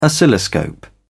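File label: "oscilloscope", British English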